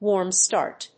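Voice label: "warm start", English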